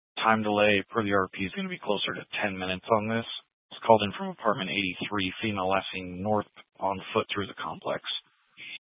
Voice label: Police Dispatch

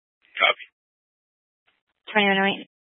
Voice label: Fire Department Dispatch; Grand Junction

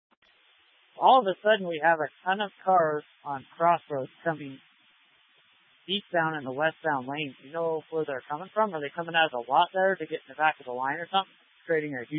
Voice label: Tactical Incidents, scanner

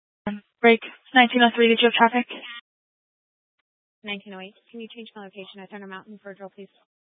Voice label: Police 1 Dispatch

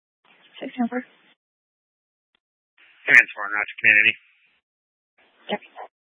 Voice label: Fire Dispatch, scanner

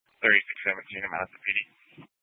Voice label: Police Department Dispatch; Grand Junction

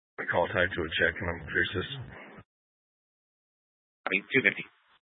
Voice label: Sheriff Dispatch; Mesa County

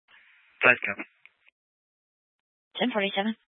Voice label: Fire Dispatch

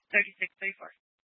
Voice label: Police 1 Dispatch

